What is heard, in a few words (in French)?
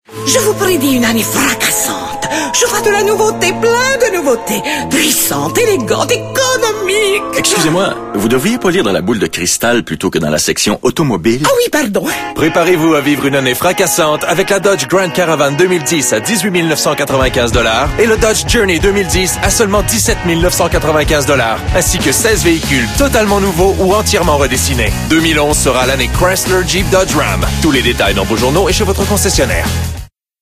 Pub Radio; Chrysler